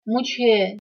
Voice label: "mujhe" Hindi